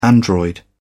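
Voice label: "android", British English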